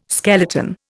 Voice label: "skeleton", Indian